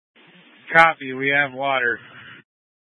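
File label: Incident Tac 1, Scanner audio recording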